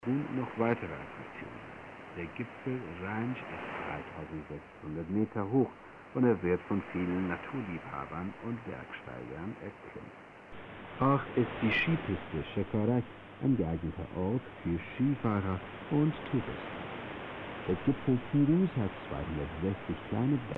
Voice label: 15085khz; Radio Iran; Sony Eton

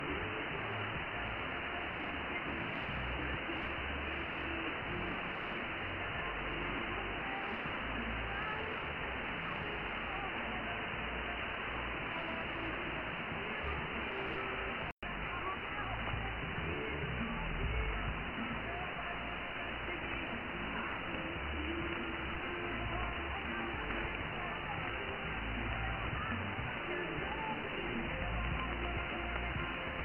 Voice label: 11780khz; G33DDC; R.Nacional Amazonia; Afedri

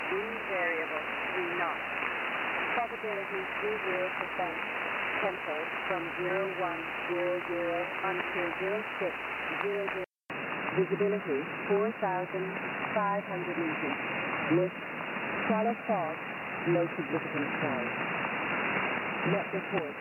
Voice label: USB Shannon Volmet; 5505khz; HF1000; R9500

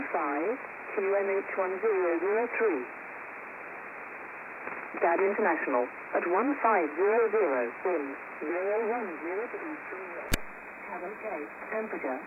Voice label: RAF Volmet USB; 11253khz

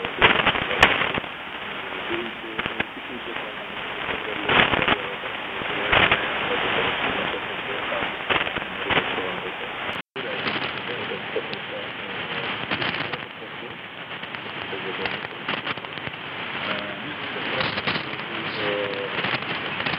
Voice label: 4010khz AM; HF1000; R9500; Birinchi Radio

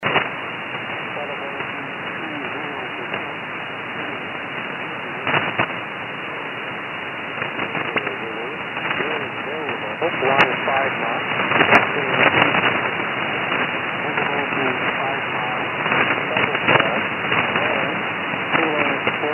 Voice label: 10051khz USB Volmet; Gander

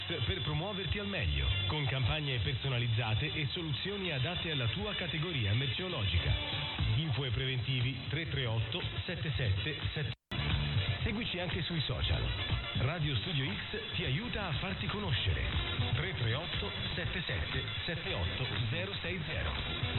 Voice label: 1188khz; Radio Studio X

